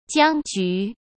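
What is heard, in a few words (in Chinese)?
"僵局", Mandarin Chinese; hsk; chinese-flashcards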